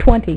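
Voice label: "twenty", female; MacCribbage; InterStellar MacCribbage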